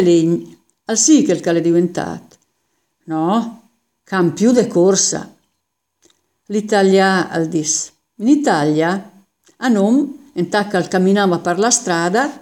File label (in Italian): ingles american e italiaa